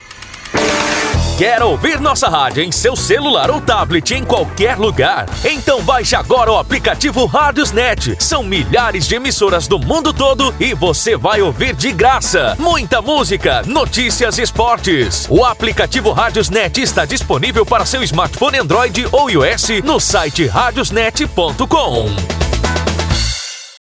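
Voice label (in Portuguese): Quer ouvir nossa radio, masculino; RadiosNet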